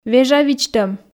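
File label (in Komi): "вежавидзтӧм", Komi, female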